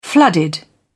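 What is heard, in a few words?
"flooded", British English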